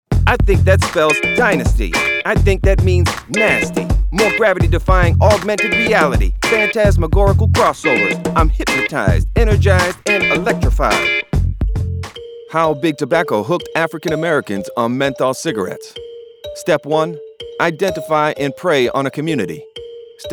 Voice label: Urban Male